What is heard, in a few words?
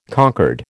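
"concord", American English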